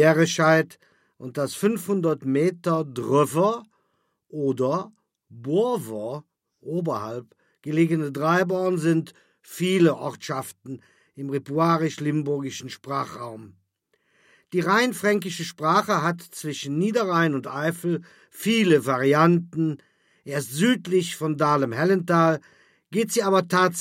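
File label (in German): zwei Dörfer zwei Dialektvarianten; berescheid; ortsteile auf platt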